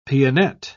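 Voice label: "pianette", British English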